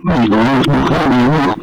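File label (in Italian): "e dona", Italian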